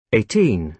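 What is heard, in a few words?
"eighteen", British English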